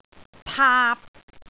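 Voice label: "taap3", Cantonese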